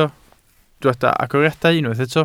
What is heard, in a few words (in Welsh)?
"agoriadau", Welsh